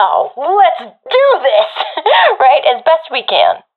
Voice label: round start, professional fem; vo; agents; Source Model Packs; Counter Strike Model Pack; CS2

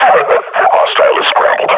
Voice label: fakeout success, combine grunt

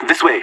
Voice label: radio follow me; Counter Strike Model Pack; CS:GO; leet

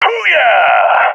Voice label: cheer, seal epic; vo; Counter Strike Model Pack; CS:GO

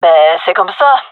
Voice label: cheer, gendermerie fem; vo

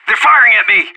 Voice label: radio taking fire; anarchist

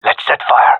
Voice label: request fire, male; vo

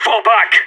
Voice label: radio bot fallback; Counter Strike; CS:GO

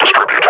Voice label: under attack, combine grunt

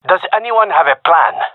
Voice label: request plan, gendarmerie male; vo

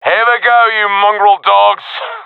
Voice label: round start, professional; vo; Counter Strike Model Pack; CS:GO

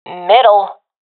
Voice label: loc mid, professional fem; vo; agents; Source Model Packs; Counter Strike Model Pack; CS2

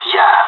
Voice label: affirmative, pirate; vo; Counter Strike Model Pack; CS:GO

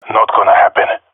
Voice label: disagree, jungle male epic; vo; agents; Source Model Packs; Counter Strike Model Pack; CS2

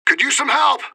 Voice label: radio bot under fire; fbihrt

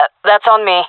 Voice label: sorry, fem; player vo; Counter Strike Model Pack; CS:GO

